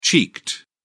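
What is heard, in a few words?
"cheeked", British English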